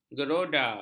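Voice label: "geroda", Malay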